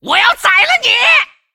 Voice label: attack, zh